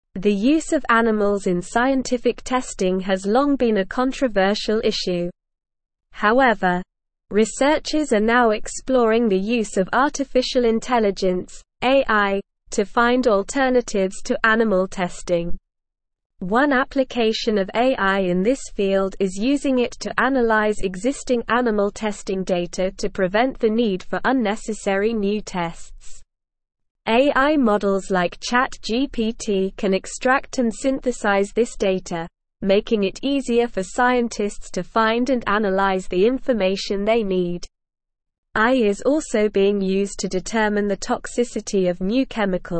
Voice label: English SLOW Reading; AI Accelerates Search for Non Animal Testing Alternatives